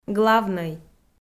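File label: "главнӧй", Komi, female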